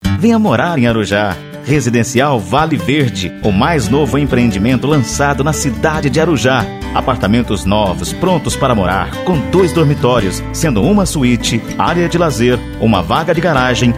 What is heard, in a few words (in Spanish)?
locutor spot